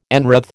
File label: "enwreathe", American